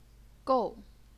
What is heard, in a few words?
"gou4", chinese pinyin